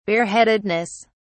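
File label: "bareheadedness", British English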